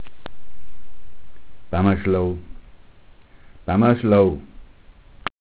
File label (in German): "bammaschlou", mundart